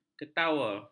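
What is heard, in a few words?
"ketawa", Malay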